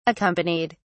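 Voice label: "accompanied", British English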